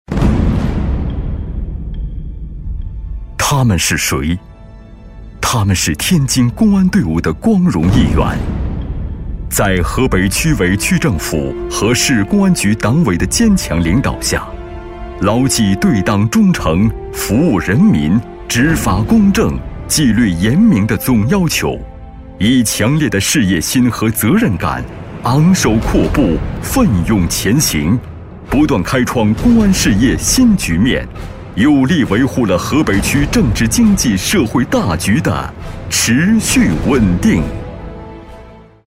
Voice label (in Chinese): 男政府法治专题公安厚重激昂